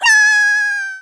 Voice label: NOOO, Italian; Worms Armageddon